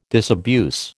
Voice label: "disabuse", American